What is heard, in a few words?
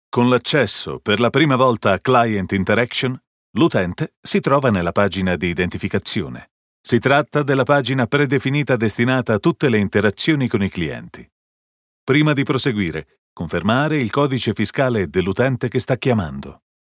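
Italian elearning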